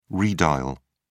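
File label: "redial", English, British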